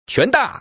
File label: common man